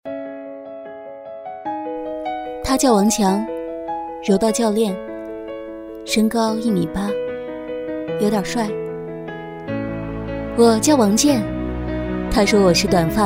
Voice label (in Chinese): 女-旁白-文艺女青年; 星巴克